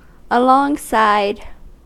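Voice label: "alongside", American English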